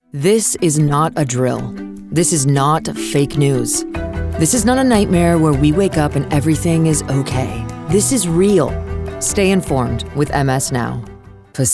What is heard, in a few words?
women political